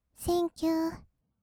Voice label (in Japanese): "センキュー", kawaii voice; ASMR; 色々なワンショット